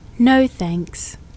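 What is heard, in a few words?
English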